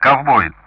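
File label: Speech Sound Russian; Common Player Callsign Cowboy; DCS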